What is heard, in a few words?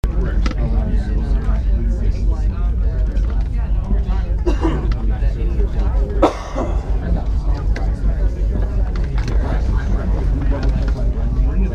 Call Center Din; Kennewick WA